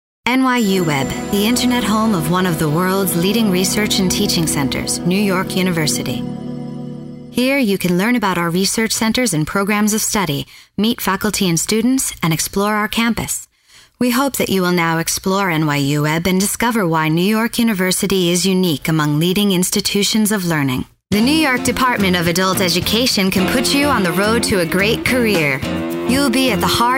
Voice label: narration